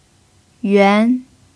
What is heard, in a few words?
"yuan2", Chinese pinyin